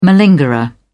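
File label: "malingerer", English, uk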